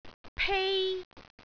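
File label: "pei1", Cantonese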